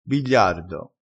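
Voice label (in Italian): "bigliardo", vocabolario dialettale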